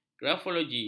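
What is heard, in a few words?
"grafologi", Malay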